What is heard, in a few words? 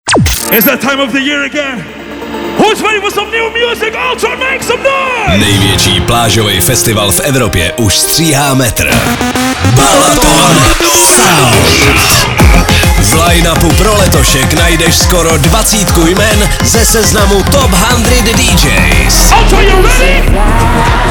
liner; balaton sound soutez